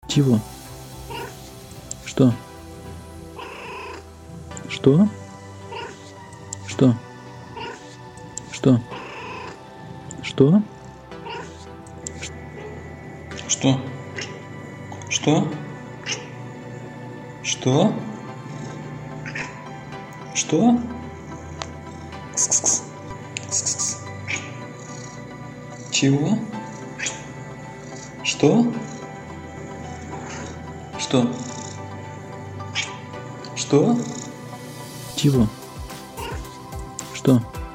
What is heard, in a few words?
A talking cat, Colloquial, Chillout